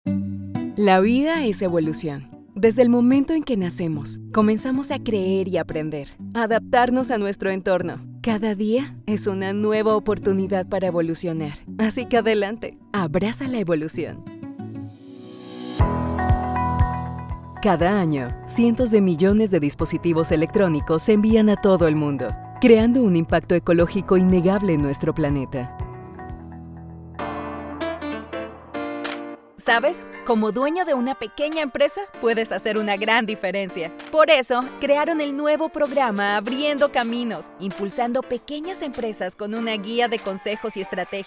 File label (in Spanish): locutora colombiana